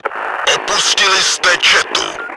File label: left platoon, cz; Opustili jste četu.; Czech; Вы покинули взвод.; World of Tanks